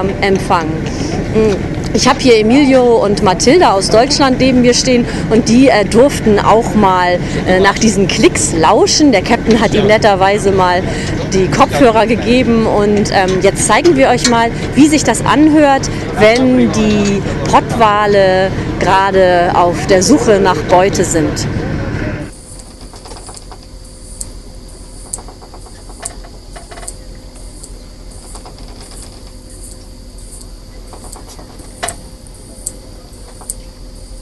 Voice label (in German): reportage vom whalewatching boot